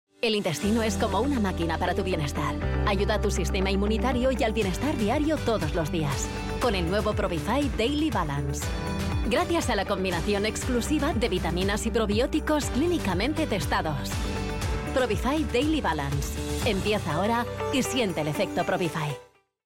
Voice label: spanish spot; probify